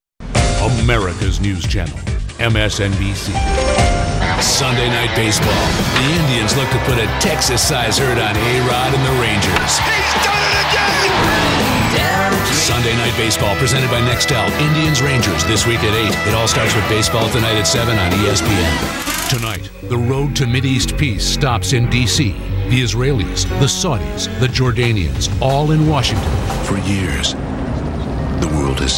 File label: promo